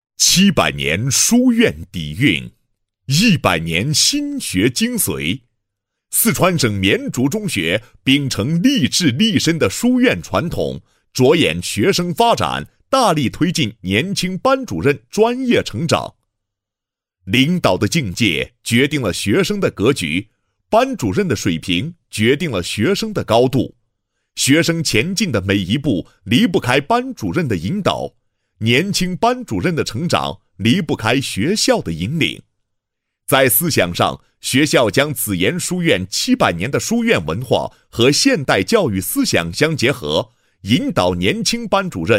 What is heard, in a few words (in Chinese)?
男 学校 宣传配音; 四川省绵竹中学